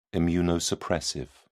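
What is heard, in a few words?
"immunosuppressive", British English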